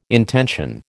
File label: "intension", American